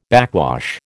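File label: "backwash", American English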